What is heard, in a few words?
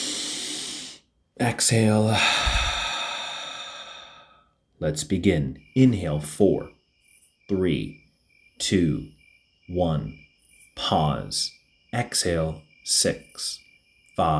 Relaxation crickets; 20-minute Proactive Relaxation